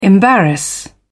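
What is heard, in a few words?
"embarrass", British English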